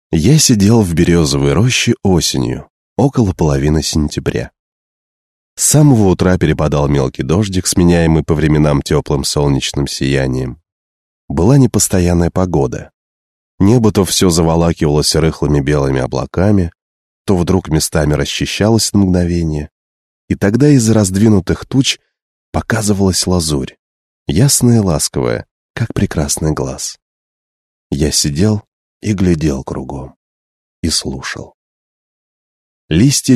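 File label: Russian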